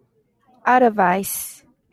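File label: "advice", Japanese, female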